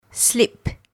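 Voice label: "slip", English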